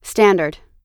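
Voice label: "standard", American English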